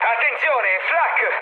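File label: Italian damaged aa mood med, speech; War Thunder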